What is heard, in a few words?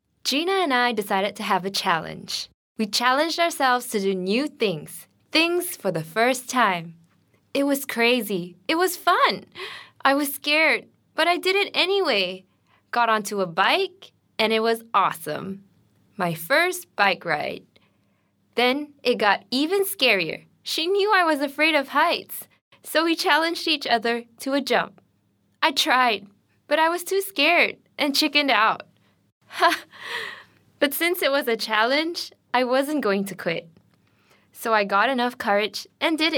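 female voice over ENG Read Character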